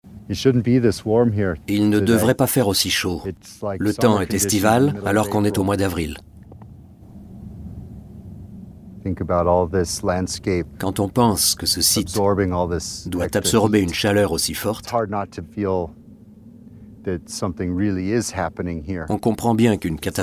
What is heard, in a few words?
voice over; INTO THE ICE